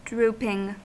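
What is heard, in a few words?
"drooping", US English; pronunciation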